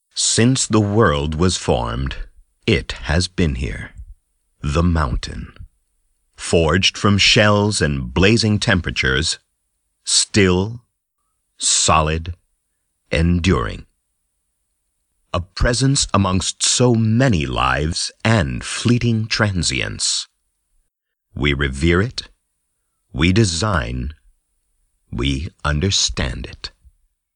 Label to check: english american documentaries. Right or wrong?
Right